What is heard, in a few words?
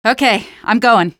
squad affirm, female; Half-Life 2